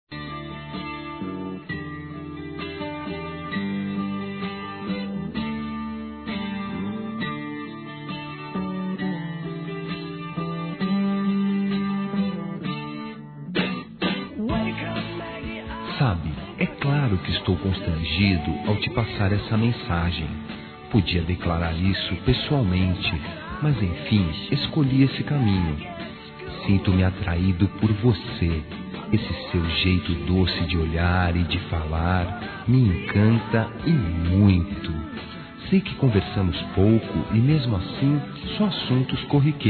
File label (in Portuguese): PAQUERA M; Telemensagens 2017